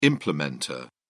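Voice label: "implementer", British English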